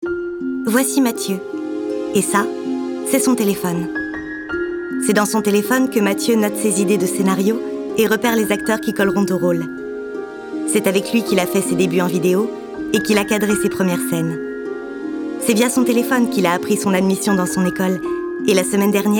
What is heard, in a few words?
INSTITUTINAL Road safety; voice-talent